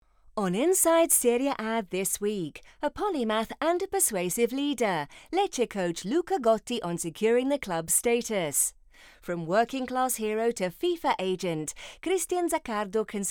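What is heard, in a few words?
sport and news, female; Voice actor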